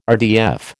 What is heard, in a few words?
"RDF", American